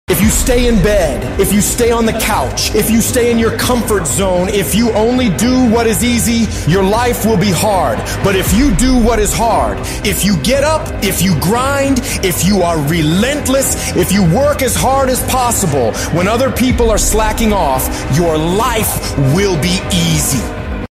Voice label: sports motivational; جملات انگیزشی ورزشی خارجی

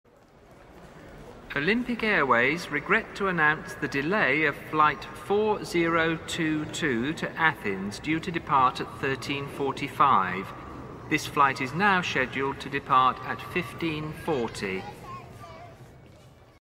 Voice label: Airport Announcement English

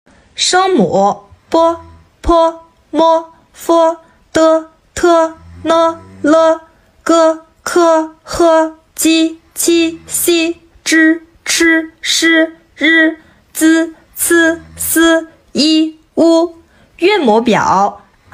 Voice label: Chinese alphabet; الفبای چینی

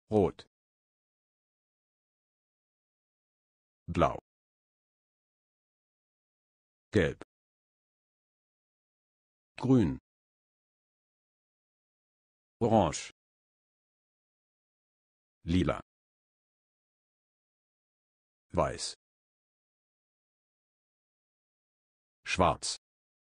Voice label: german language

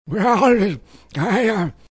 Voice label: old man mumble

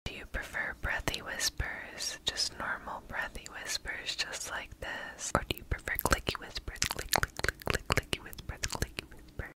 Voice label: whispering asmr; اسمر زمزمه

